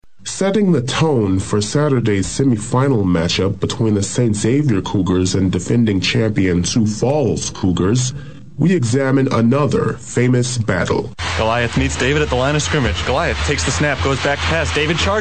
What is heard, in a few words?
football voiceover; Football v Sioux Falls